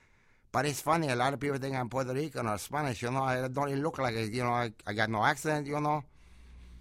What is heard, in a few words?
Spanish